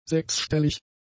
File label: "sechsstellig", German; adjectives